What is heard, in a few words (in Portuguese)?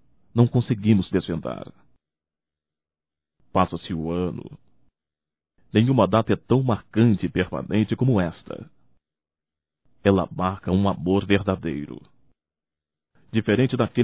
NATAL NEUTRO, masc; Natal e ano novo; Telemensagens 2017